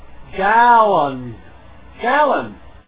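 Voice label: "gallon", English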